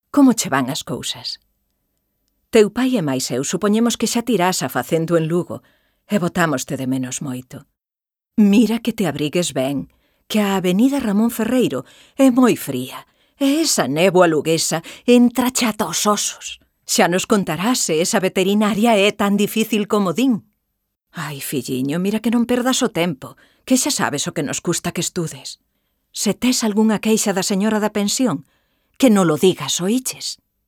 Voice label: Galician; VOICES